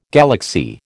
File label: "galaxy", American